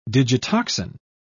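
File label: "digitoxin", en, British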